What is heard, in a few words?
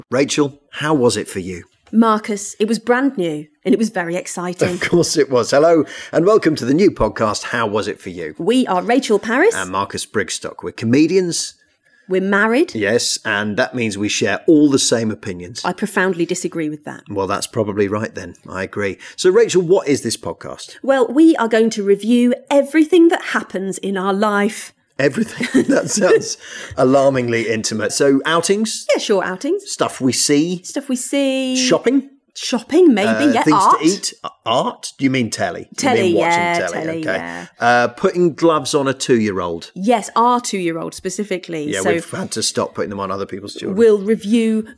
Voiceover; Marcus Brigstocke